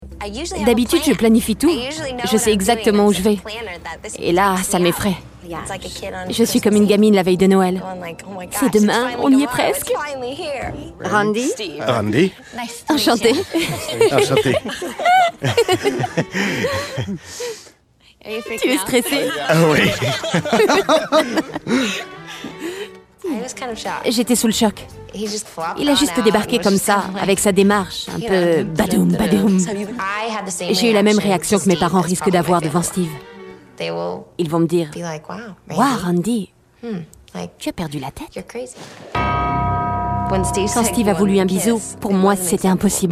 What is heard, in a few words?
voiceover; mon incroyable fiance